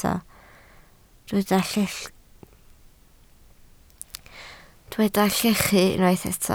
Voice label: "llechu", Welsh

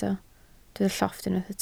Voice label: "llofft", Welsh

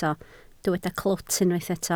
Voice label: "clwt", Welsh

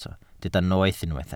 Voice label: "noeth" Welsh

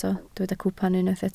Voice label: "cwpan", Welsh

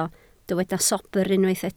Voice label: "sobr", Welsh

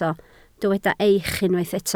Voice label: "eich", Welsh

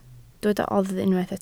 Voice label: "oddf" Welsh

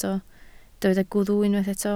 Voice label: "gwddf", Welsh

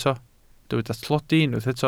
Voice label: "tlodi", Welsh

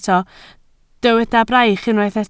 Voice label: "braich", Welsh

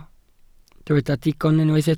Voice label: "digon", Welsh

